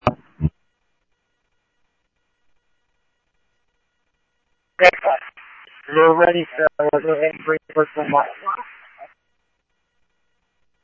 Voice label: Scottsdale Police, scanner audio; H-Scottsdale